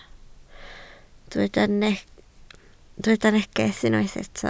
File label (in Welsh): "neges" Welsh